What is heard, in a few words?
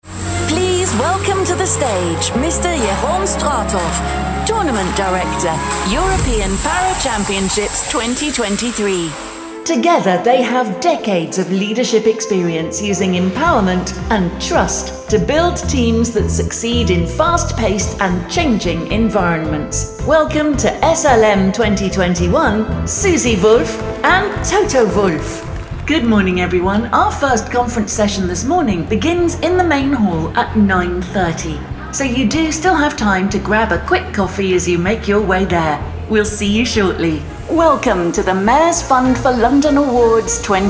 Voice of God Reel